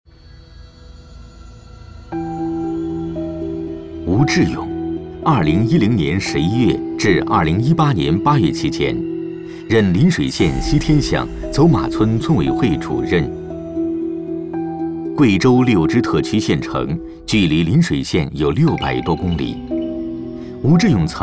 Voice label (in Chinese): 男 专题 警示教育 法制